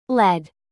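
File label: "live", verb, British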